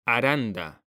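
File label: "Aranda", Spanish, male; mekanta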